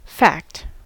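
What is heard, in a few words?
"fact", US English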